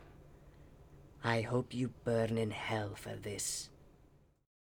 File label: Male Beggings